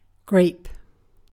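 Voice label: "grape", British English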